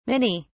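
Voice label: "minnie", British English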